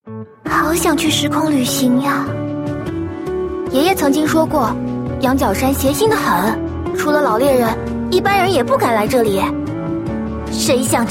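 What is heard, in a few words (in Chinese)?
qingnian nv (young woman)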